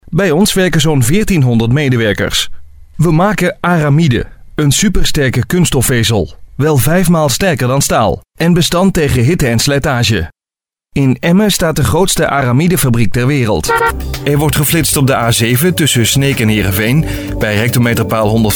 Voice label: explanation videos

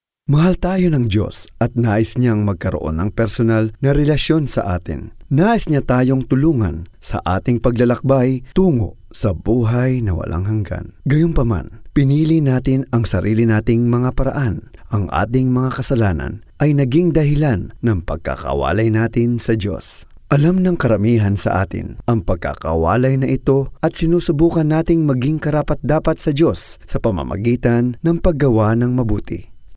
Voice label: Tagalog Bible

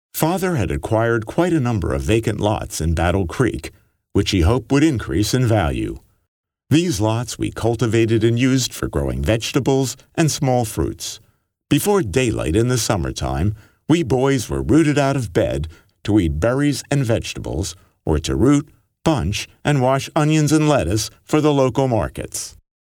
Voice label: Dry

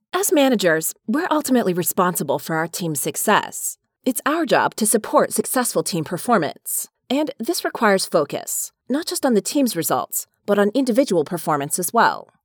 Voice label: Corporate Narration

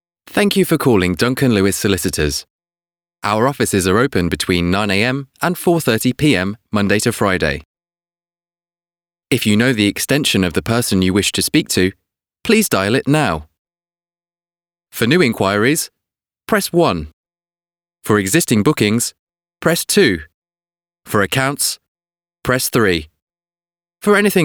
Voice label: ivr

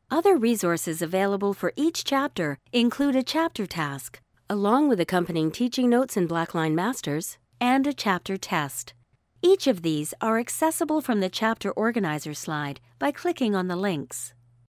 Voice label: training video

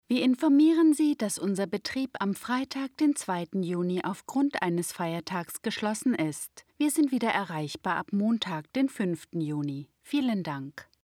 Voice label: de

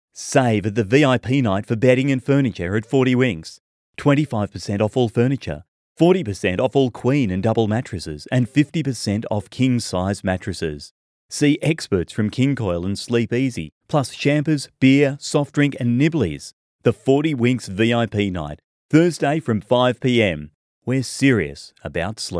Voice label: Bed Shop Ad

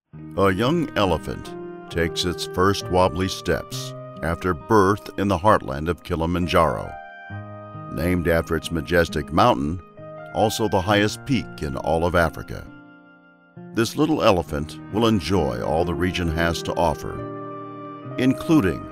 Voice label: TELEVISION DOCUMENTARY

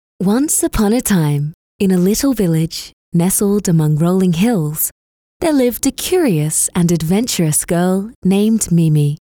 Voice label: Childrens Sample

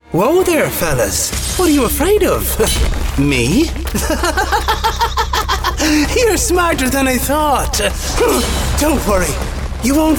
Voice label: Character Reel

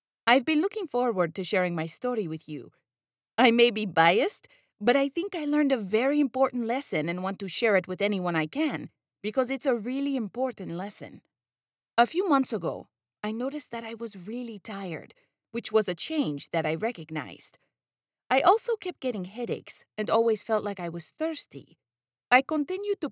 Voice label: Accent

